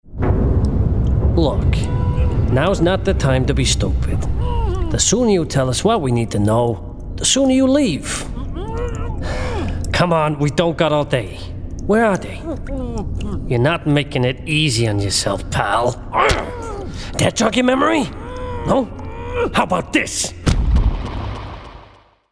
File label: CHARACTER Gangster New York